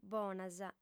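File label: "bonas", female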